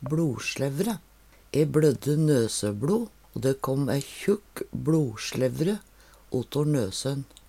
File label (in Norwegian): "bLosLevre", Numedalsmål; Blodet levrar seg. Det får konsistens som lever og om lag same farge.